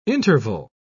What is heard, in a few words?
"interval", pronounce